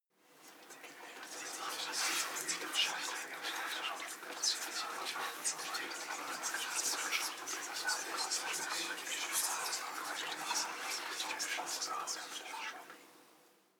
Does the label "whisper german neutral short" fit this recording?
Yes